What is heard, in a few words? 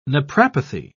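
"naprapathy", British English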